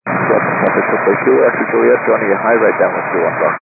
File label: 122 725MHz, scanner radio